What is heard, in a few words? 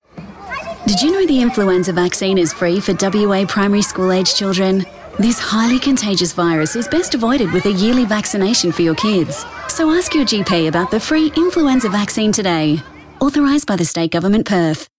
radio ad metro; Immunisation; Primary school influenza